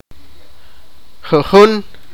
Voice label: sipakapense

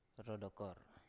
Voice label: "ro dokor", Biak

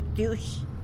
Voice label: "btyuix", tlacolula; tomato

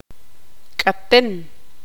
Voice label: tzutujil; caliente

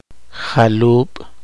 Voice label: poqomchi; incordio dolor de heridas

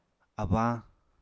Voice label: "abañ", apatani

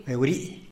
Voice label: "beurii" type of bird; animals

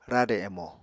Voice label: "rade emo", Apatani

